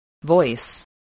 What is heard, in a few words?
"voice", English